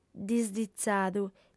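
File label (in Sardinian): "disditzadu", female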